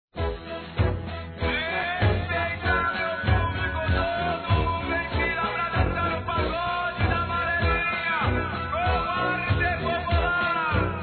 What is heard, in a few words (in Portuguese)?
NEUTRO, Aniversário Infantil; Telemensagens